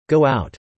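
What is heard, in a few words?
"go out" spoken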